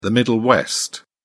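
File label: "middle west", British English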